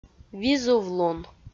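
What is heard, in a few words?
"визувлун", Komi, female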